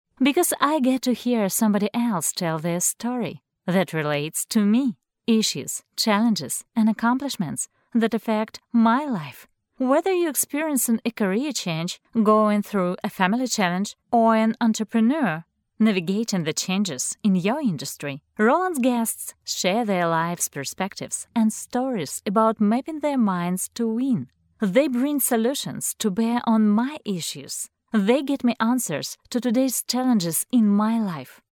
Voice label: female russian voice over english